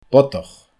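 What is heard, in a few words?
"bodach", Scottish Gaelic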